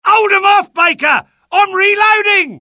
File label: reload, dialogue UK; mohaa